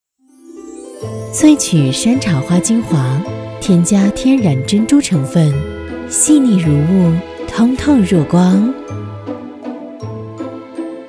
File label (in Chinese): 女 高端广告 散粉